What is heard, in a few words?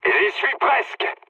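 near waypoint, mood medium, French; War Thunder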